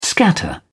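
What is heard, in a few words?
"scatter", British English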